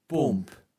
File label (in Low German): "Pomp", Platt